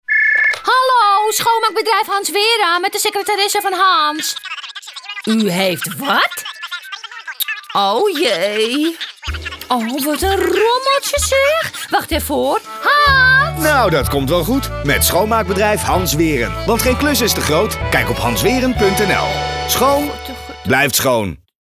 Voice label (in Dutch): Radio reclame